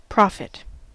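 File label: "profit", American English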